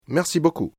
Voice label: merci beaucoup, french